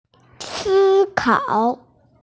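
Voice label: "sikao", Mandarin Chinese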